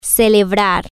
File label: "celebrate" Spanish